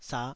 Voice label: "sah5", taiwanese syllable

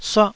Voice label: "suah4", taiwanese Syllables

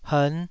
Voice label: "hern5", Taiwanese syllable

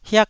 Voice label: "hiak1", Taiwanese syllable

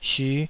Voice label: "syu5", taiwanese Syllables

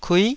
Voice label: "kui9", Taiwanese syllable

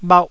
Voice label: "bhauh1", taiwanese

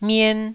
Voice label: "mien1", Taiwanese

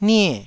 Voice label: "nie4", Taiwanese

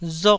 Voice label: "rok1", taiwanese syllable

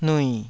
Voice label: "nui3", Taiwanese

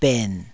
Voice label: "ben3", taiwanese

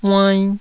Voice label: "uainn2", taiwanese

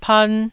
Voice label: "pan1", taiwanese

